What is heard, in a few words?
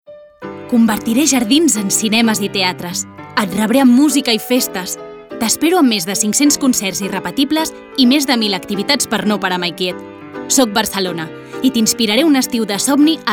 female catalan comercial; ideasonora